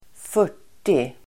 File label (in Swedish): "fyrtio", Swedish